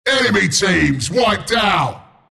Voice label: announcer kill teamwipe; dlc bristleback killing spree